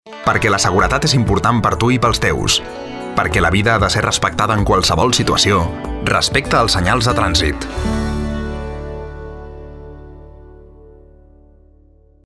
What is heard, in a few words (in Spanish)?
catalan